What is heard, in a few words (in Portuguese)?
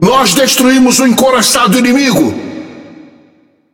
Speech Destroy Enemy Battleship, PT BR; World Of Warships